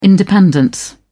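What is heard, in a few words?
"independence", British English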